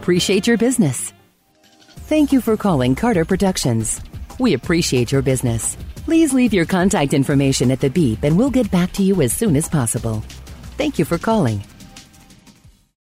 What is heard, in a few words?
On Hold